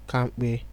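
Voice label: "kampe", Nigerian Pidgin, male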